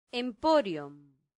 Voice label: "emporion", latin; santillana